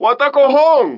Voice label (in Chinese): 拉丁裔海滩中年男性; 拉丁裔海滩中年男性（罪恶都市）; What the hell?